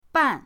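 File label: "ban", Mandarin pinyin, fourth tone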